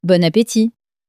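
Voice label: "bon appetit", French, female; flashcards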